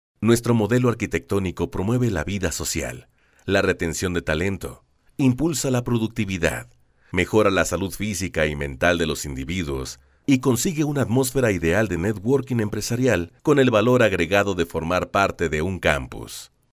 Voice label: locutor latinoamericano american spanish voiceover; locutor de español neutro